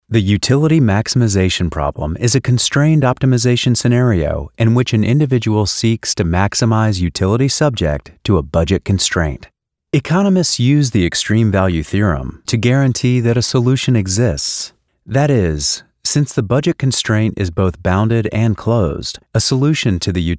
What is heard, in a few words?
Academic Elearning; Microeconomics